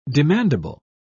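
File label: "demandable", British English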